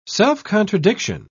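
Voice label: "self-contradiction", British English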